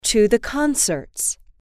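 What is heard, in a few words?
"to the concerts", English, female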